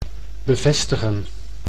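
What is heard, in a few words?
"bevestigen", Dutch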